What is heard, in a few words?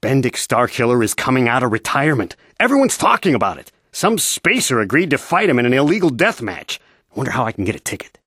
male; Star Wars Knights Of The Old Republic I; XBOX